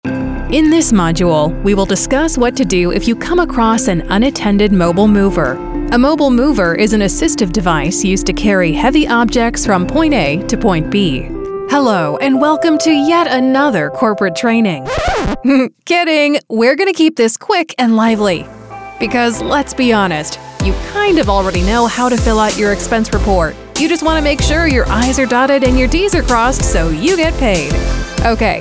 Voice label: english american e learning